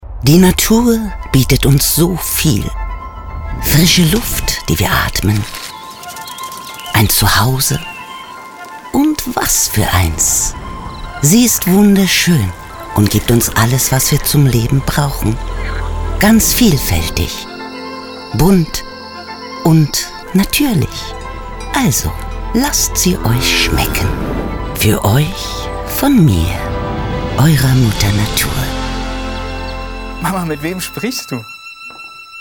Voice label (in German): Werbung Kino Mix